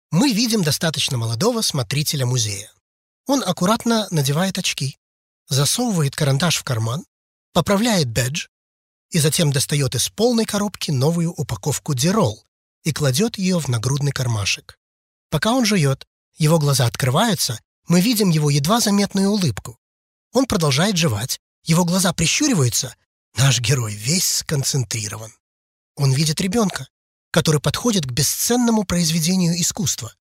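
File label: Russian Russia male narrative; russian voice over talent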